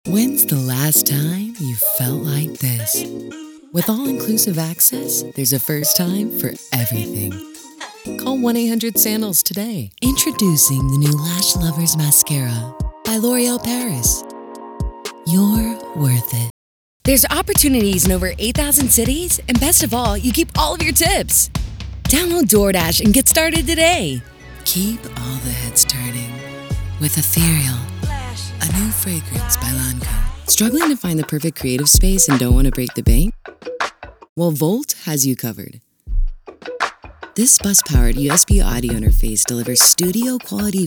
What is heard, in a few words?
female voiceover commercial